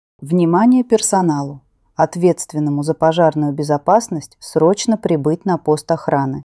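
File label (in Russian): оповещение вызов ответственного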